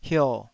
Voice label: "hio3", Taiwanese syllable